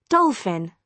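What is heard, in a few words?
"dolphin", British English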